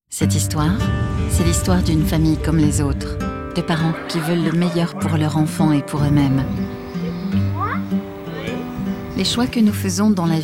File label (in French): film video entreprise; voix off; LatexBio